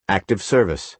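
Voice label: "active service", American English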